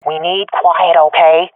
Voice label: request quiet, swat fem; vo; Counter Strike Model Pack; CS:GO

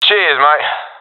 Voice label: thankful, professional epic; vo; Counter Strike Model Pack; CS:GO

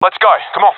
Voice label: round losing, professional; vo; Counter Strike Model Pack; CS:GO

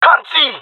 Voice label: blinded, jungle male; player vo; Counter Strike Model Pack; CS:GO

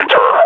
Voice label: announce attack, combine grunt; alyx

